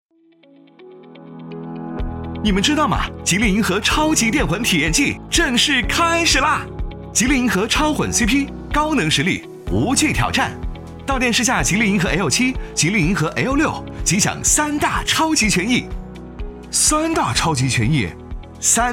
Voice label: 男 活泼; 吉利银河超级电混体验季; 汽车tvc广告

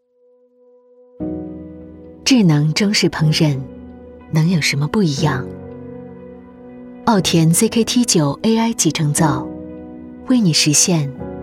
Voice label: 女 大音 产品 AI集成灶; 奥田ZKT9

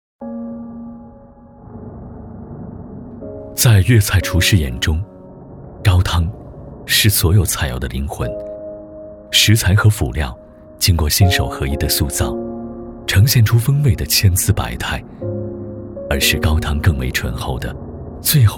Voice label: 男 形象宣传; 走心企业形象片样音; 仟味

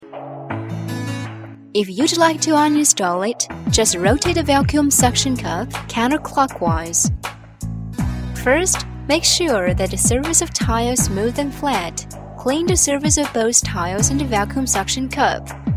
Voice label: 女英 专题 宣传; 专题卓尚宣传

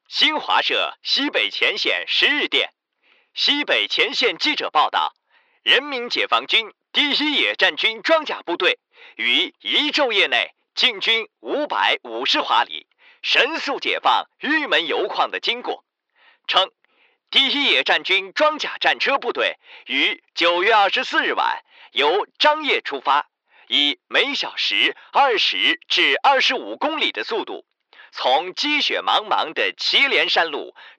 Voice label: 男国 老式新闻 带效果; 男声新闻; 解放玉门油矿

